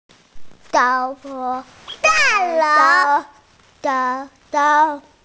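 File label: "da4 lou2", Mandarin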